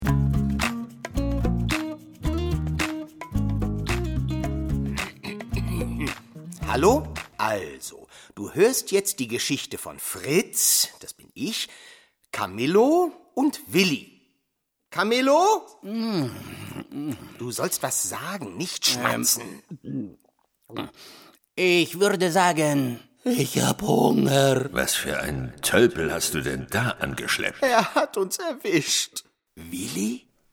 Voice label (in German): Hörspiel Kinder kurz